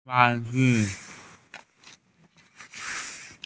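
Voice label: "toy", Mandarin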